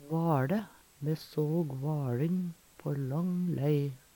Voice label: vaLe, Numedalsmål; Me såg vaLin på lang lei.

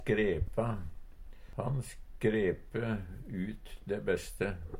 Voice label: "skrepa", Numedalsmål; Verb

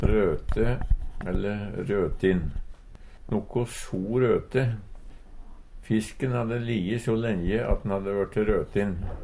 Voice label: "rote", Numedalsmål

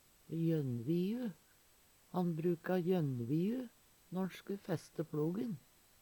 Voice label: "jønnviu", Numedalsmål

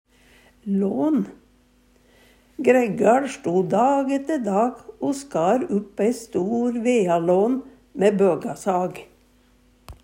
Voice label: lan vealan, Numedalsmål

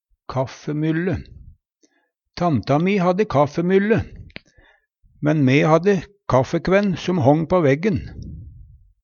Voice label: kaffemylle, Numedalsmål

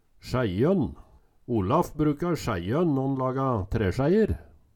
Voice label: "sjæijønn", Numedalsmål

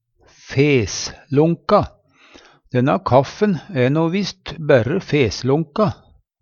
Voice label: "feslonka", Numedalsmål; Litt varm eller oppvarma

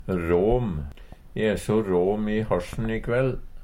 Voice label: ram, Numedalsmål; E e so råm i hasjen i kvell.; Adjektiv

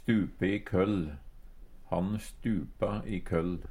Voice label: stupe i køll, Numedalsmål; Uttrykk

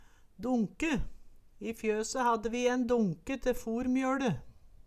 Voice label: "donke", Numedalsmål

